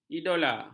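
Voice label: "idola", Malay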